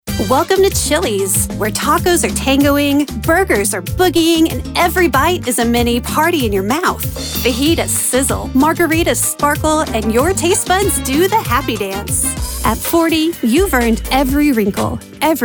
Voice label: Commercial